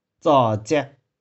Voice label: "tsau2cih4", 玉環

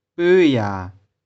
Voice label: "poe3ya3", 玉環

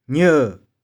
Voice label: "nieu1", 玉環

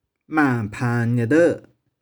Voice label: "mang2phang1nih4deu1", 玉環

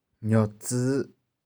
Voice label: "nioh4tsy5", 玉環

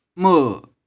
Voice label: "mo2", 玉環

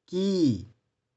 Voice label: "ki6", 玉環

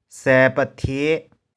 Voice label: "sae1peh4thie5", 玉環

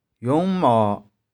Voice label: "yung1mau1", 玉環

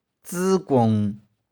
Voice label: "tsy1kung1", 玉環

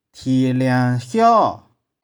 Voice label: "thie1liang3hiau6", 玉環

